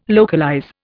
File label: "localize", Indian